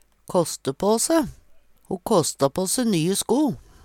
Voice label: kaste pa se, Numedalsmål; unne seg noko; Uttrykk

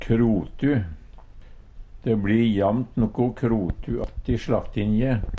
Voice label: krotu, Numedalsmål

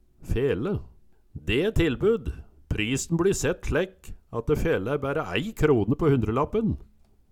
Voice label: "fele", Numedalsmål

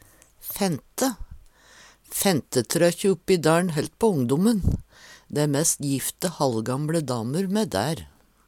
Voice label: fente, Numedalsmål; Det blir og sagt fentonje om jentongar.

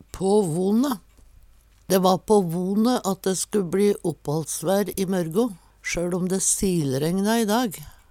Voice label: "på vone", Numedalsmål; Dæ va på vone om dæ sku bLi opphallsvær i mørgo, sjøL om dæ silregna i dag.

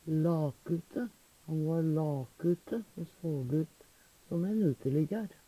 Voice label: lakute, Numedalsmål; Veggli; Adverb

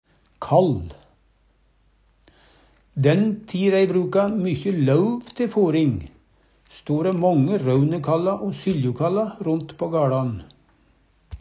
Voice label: kall, Numedalsmål

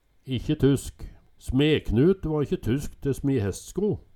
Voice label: ikkje tusk, Numedalsmål; SmeKnut va kje tusk te smi hestesko

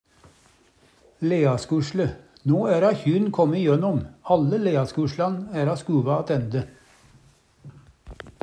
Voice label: leaskusle, Numedalsmål; No æra kjyn kåmmne ijønnom, alle leaskuslan æra skuva attænde.

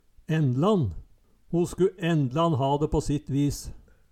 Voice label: "endlann", Numedalsmål

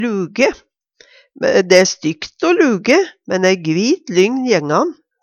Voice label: luge, Numedalsmål; Verb

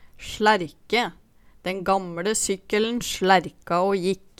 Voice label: "slærke", Numedalsmål; Eksempel på bruk Den gamLe sykkeln slærka å jikk

